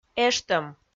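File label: "эштӧм", Komi, female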